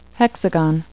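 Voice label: "hexagon", English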